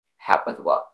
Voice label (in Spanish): Voice Acting es F0